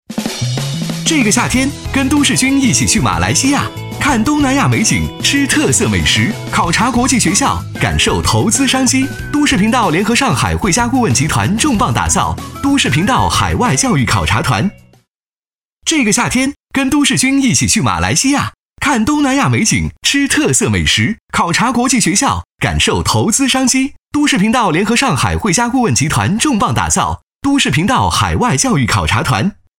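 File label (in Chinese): 广告都市活力; 都市君; 旅游投资配音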